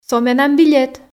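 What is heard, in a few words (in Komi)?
"со менам билет", Komi, female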